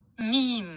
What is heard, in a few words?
"miim", Arabic